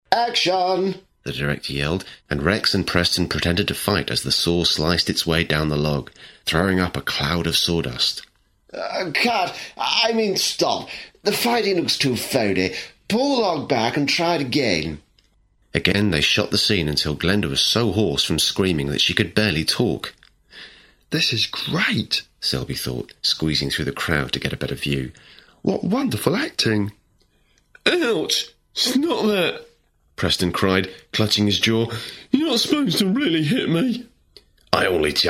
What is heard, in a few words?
Narration Story